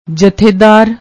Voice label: "jathedaar", Punjabi